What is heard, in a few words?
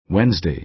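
"wednesday", American English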